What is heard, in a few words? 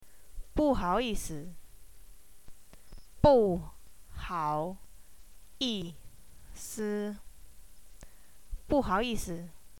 excuse me embarrassed, chinese